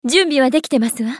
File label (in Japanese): ウォーロック女･選択